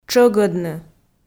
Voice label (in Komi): "тшӧгӧдны", Komi